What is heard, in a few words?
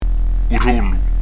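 "u dolu", Corsican; u r'olu; Son "adouci"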